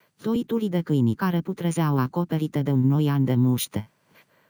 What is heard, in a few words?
synth